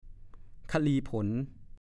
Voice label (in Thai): "ค่ะลีผล", ภาษาโคราช; มักกะลีผล นารีผล